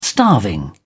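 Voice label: "starving", British English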